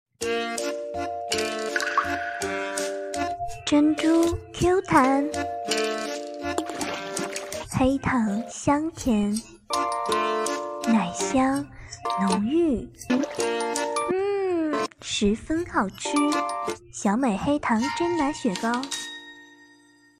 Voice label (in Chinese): 雪糕广告; 黑糖珍奶雪糕